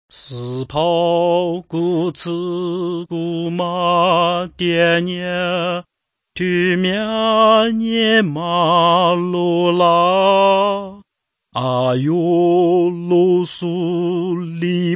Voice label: words of life lisu southern